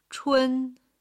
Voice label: "chun1", Chinese